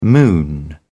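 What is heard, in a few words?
"moon around", British English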